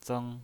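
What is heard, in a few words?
"zeng" Mandarin Chinese pinyin voice, first tone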